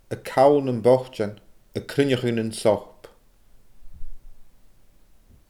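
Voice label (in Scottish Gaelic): "a call nam boitean a cruinneachadh nan sop", Scottish Gaelic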